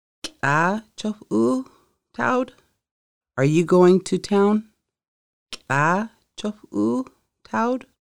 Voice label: Are you going to town, conversational Lushootseed